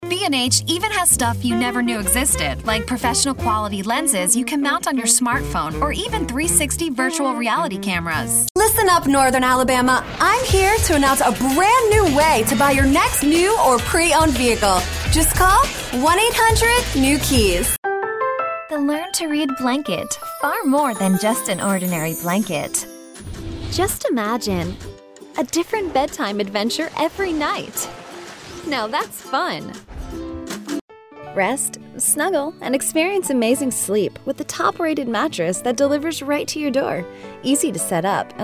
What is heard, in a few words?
Announcer